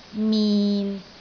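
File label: "min6", Cantonese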